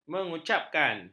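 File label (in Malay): "mengucapkan", Malay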